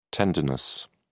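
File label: "tendinous", British English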